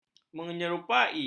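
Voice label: "menyerupai", Malay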